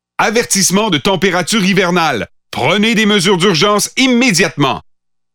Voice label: Pathfinder French Standard Canadian